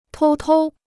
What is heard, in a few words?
"偷偷", Chinese